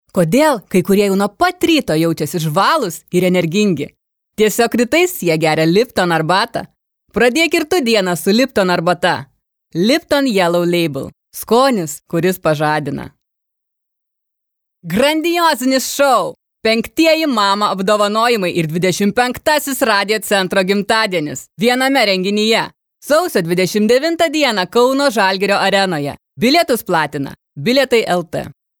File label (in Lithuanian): reklama